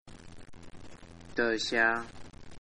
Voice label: "thanks", Taiwanese

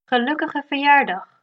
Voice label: birthday, dutch

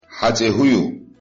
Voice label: "name", Chechen

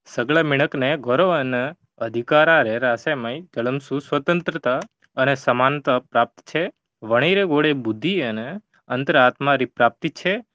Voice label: Marwari

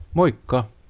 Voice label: goodbye, finnish

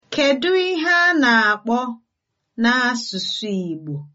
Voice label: how to say, igbo

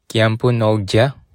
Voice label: sorry, bonggi

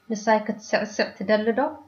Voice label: dance, Tigrinya

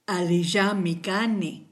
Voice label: fine, kichwa

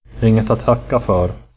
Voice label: youre welcome, swedish

